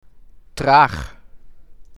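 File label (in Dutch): "Traag", Dutch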